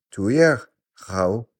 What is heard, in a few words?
civ male attack, napatan